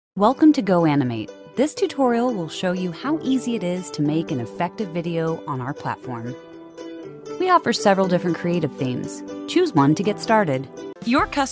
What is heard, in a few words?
Narration